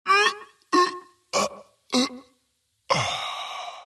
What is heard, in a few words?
wraith death; dota; skeleton king